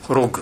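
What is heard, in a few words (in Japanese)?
"horogu", 南山形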